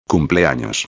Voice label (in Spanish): "cumpleanos", espanhol; palavra do dia